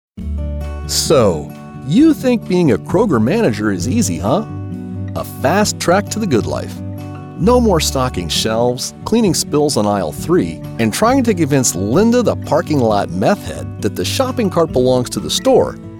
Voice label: ELearning